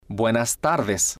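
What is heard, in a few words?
"buenas Tardes", spanish, male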